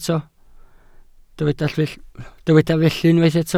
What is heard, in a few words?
"felly", Welsh